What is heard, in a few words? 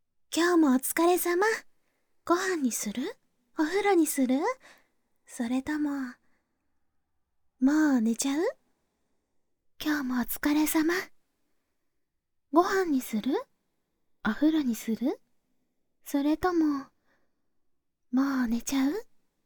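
binaural sample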